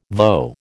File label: "voe", American